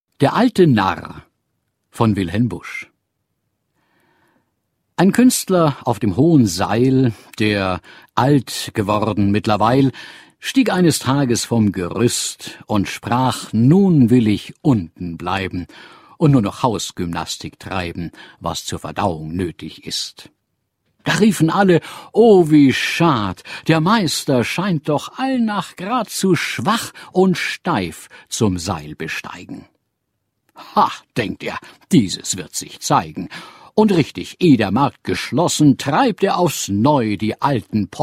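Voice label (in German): Kommentar Poetisch; Fremdtake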